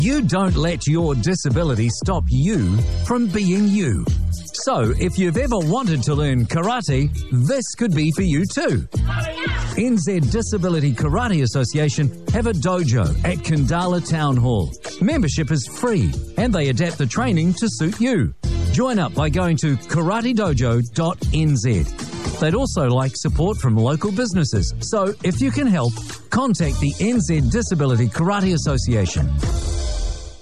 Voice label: radio ad